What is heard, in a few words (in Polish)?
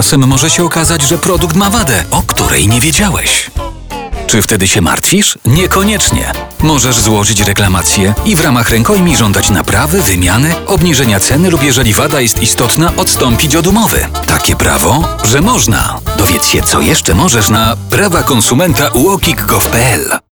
spot radiowy zakupy tradycyjne